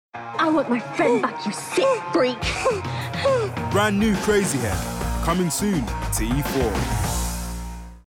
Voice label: Promo

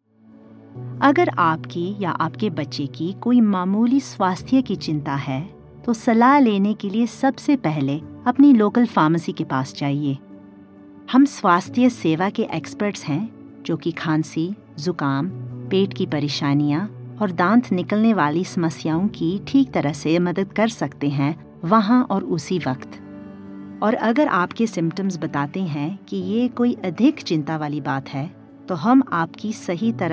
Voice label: Hindi; NHS Pharmacy – Hindi

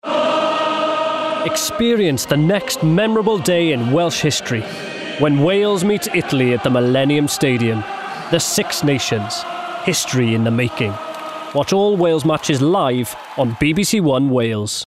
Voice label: TRAILER; 6 Nations

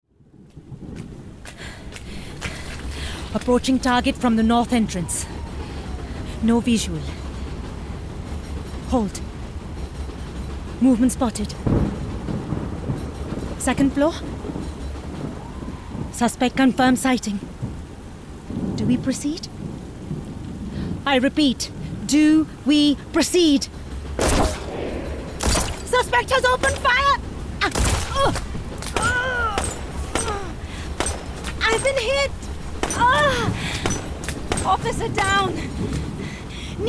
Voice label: Character; Detective

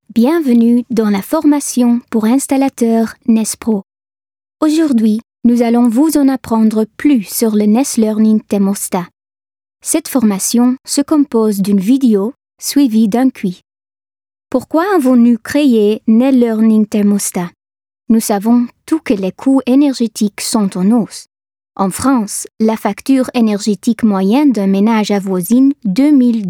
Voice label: French Language Read

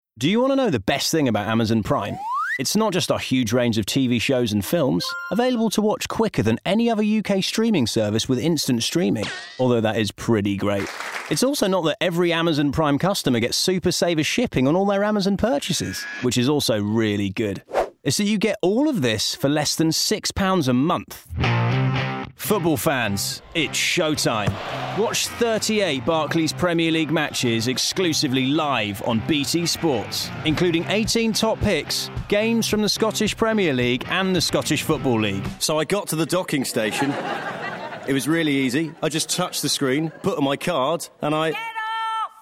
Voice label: Commercials Montage

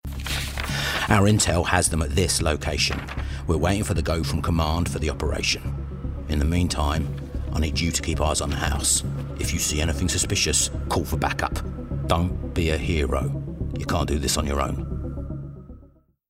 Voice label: Gaming Soldier

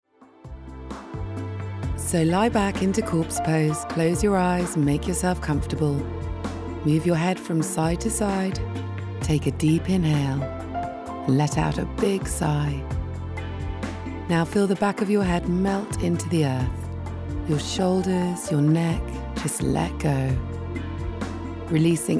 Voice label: CHARACTER Yoga Instructor

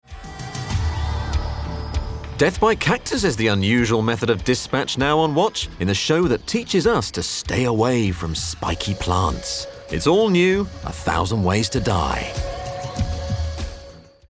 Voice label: continuity

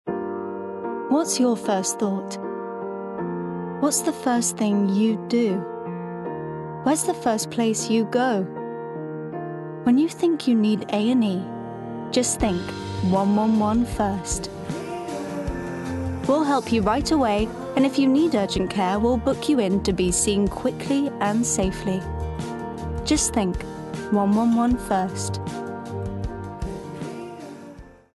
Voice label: English

